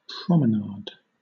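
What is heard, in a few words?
"promenade", British English; pronunciations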